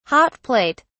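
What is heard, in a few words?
"hot plate", British English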